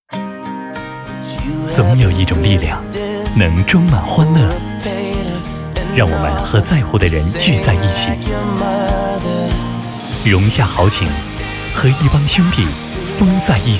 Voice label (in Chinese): 男 汽车广告 品质感; 雪佛兰科帕奇; 温情旁白